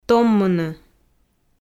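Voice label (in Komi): "томмыны", Komi, female; kpv; audio dict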